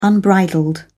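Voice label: "unbridled", British English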